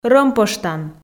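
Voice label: "рӧмпӧштан", Komi, female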